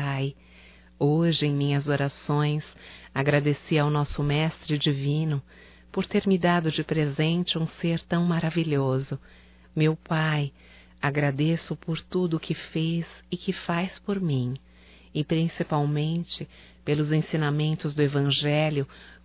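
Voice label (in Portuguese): NATAL PAI, feminino; Telemensagens 2017; Natal & Ano Novo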